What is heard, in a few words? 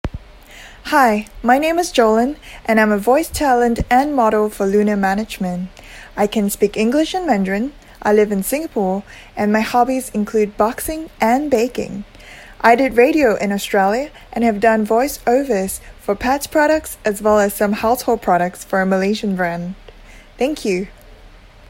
English in various accents